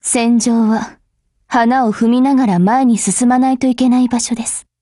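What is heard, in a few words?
WIN Japanese, Voice; GF Alarm; AUG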